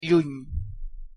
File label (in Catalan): "lluny", Com pronunciar en català